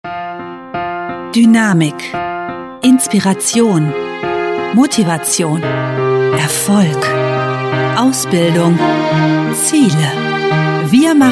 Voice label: Imagefilm; Visionen

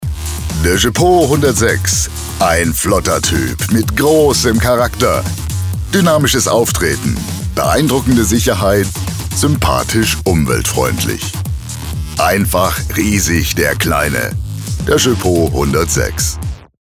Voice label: Auto Werbung

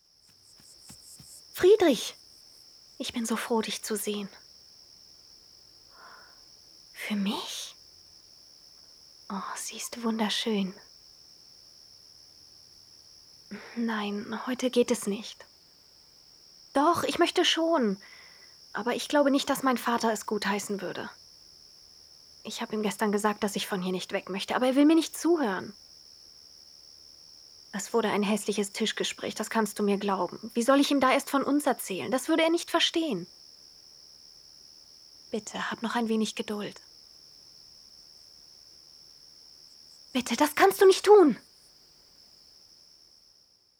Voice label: Sprachfile, stimmfarbe hoch

